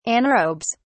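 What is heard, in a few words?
"anaerobes", British English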